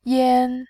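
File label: "yan", Mandarin Chinese, tone 1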